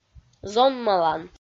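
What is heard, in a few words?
"зонмалан", Komi, female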